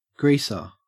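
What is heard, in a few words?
"greaser", Australian English; pronunciations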